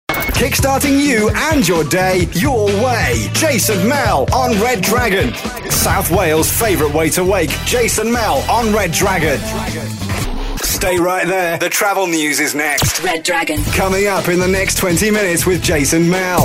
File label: promo